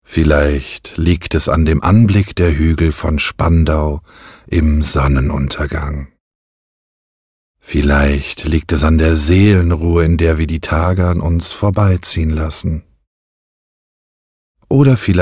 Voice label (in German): Sprecherdemo Whiskey Werbung tief ruhig